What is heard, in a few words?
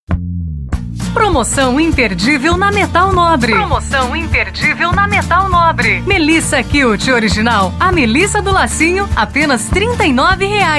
radio indoor